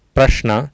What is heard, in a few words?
"praSna", Sanskrit